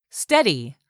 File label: "steady", English; 3000 words